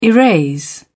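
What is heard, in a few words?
"erase", British English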